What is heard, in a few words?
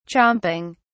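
"chomping", British English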